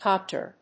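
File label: "copter", English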